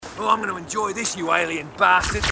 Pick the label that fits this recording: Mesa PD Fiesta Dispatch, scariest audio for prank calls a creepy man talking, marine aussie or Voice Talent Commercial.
marine aussie